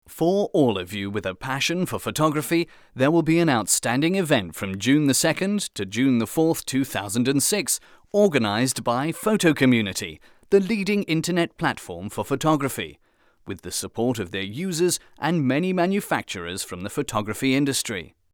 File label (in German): English, Sprecher